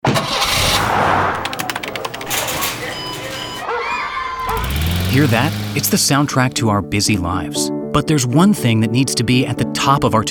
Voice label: Recall PSA Eng; Toyota; Takata AB Recall; Priorities